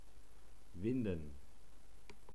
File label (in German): "winden", german